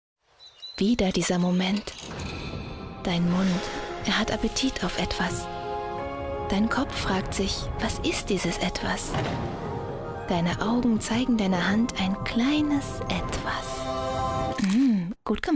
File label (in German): werbung; milka crispello